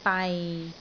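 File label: "bai6", Cantonese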